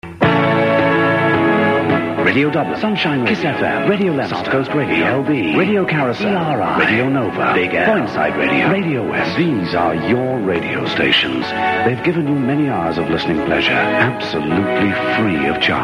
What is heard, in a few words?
ad